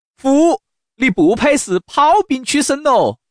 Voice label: dianpao, changsha man; mahjong xianlai